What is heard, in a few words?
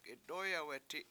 it got black, washo; itdOyawEti7